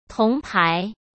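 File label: "铜牌", Mandarin Chinese; chinese-flashcards; hsk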